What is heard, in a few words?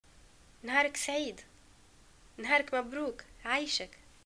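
greeting good morning, Tunisian Arabic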